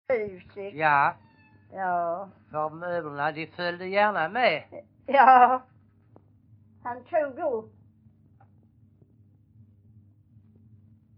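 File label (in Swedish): Vånga Skåne, dialekter, svenska sagor; Folkminnen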